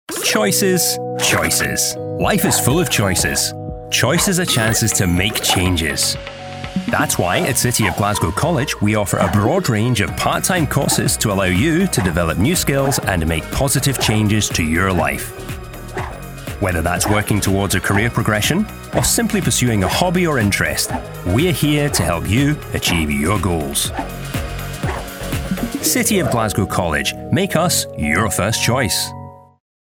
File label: male English Scottish Glasgow college commercial; city of glasgow college